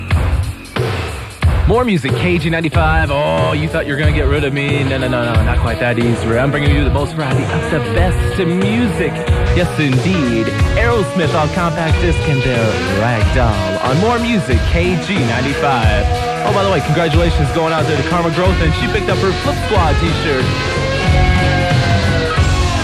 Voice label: FM Overnights; KGLI; Aug 1988